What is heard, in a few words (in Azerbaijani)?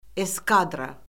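"eskadra", Azerbaijani; Orfoqrafiya Lüğəti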